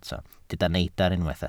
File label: "neidr", Welsh